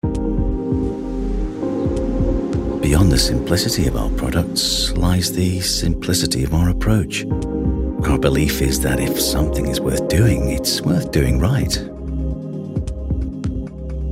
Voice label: British Voiceover Internet Commercial Naturalistic; NOKIA